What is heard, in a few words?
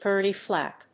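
"Gurney flap", English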